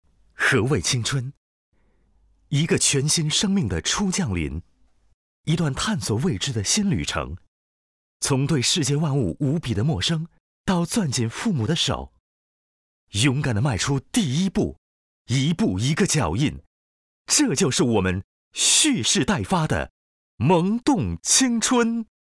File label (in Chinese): 男 形象片; 爱奇艺